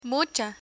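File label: "mucha" Spanish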